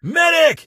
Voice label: "medic", male; Kenney's Sound Pack; Voiceover

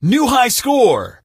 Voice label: "new highscore", Male; Kenney's Sound Pack; Voiceover Pack

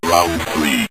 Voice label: round 2, synth voice; Kenney's Sound Pack

